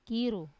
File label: "kiru", lime, wamesa